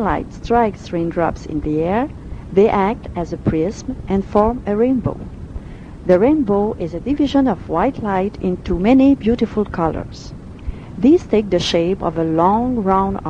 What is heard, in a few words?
quebec